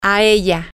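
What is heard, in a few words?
to her, spanish, female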